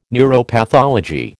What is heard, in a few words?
"neuropathology", American